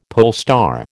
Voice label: "polestar", American

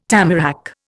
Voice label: "tamarack", Indian

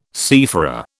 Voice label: "seafarer", British

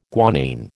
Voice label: "guanine", American

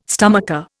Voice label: "stomacher", Indian

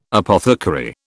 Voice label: "apothecary", British

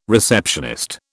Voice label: "receptionist", British

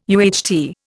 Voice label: "UHT", Indian

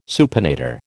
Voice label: "supinator", American

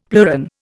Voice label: "pleuron", Indian; Dictionary

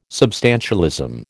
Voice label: "substantialism", American

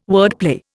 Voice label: "wordplay", Indian

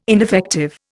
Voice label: "ineffective", Indian

